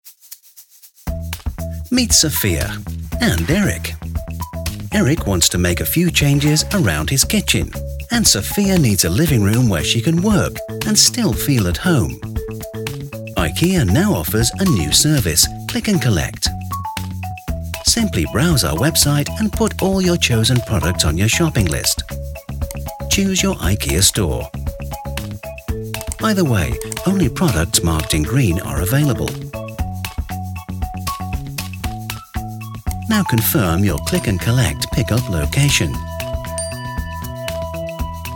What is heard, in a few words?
British Voiceover Explainer Video; IKEA Click And Collect